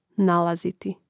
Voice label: "nalaziti", Hrvatski; neizvorni; mreznik

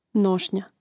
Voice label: "nosnja", Hrvatski; mreznik

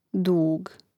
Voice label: "dug", hrvatski; mreznik

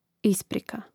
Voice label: "isprika", hrvatski; mreznik

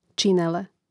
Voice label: "činele", Hrvatski

